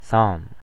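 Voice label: "psalm", US English; pronunciations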